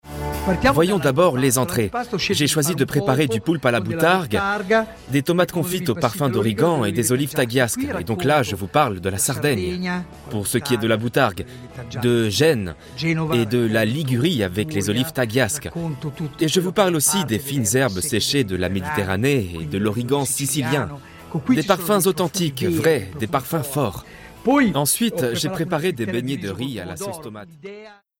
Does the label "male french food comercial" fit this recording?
Yes